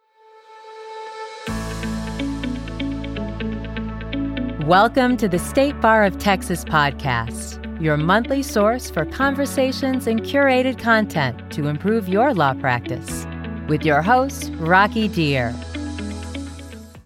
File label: podcast